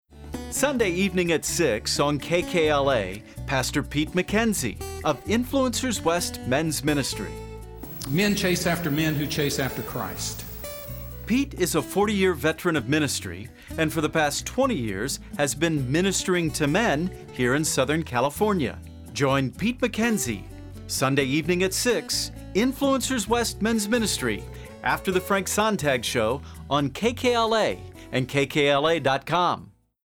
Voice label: Promo; Influencers